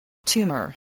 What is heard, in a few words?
"tumour", voice